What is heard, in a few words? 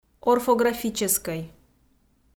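"орфографическӧй", Komi-Zyrian, female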